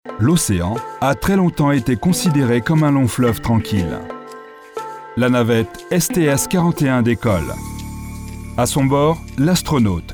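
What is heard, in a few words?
French, male, corporate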